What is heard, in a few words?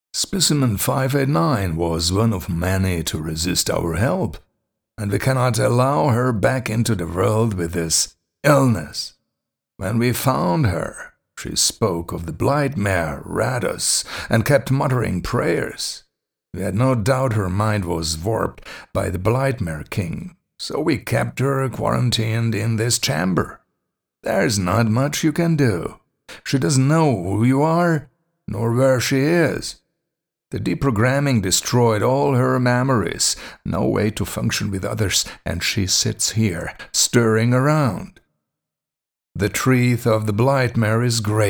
narrator